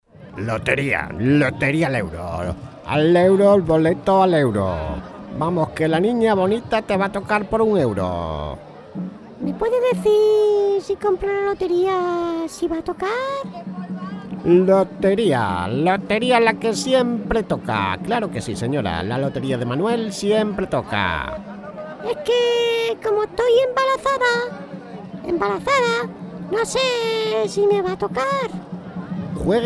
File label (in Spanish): anuncio loteria navidad locutores voiceovers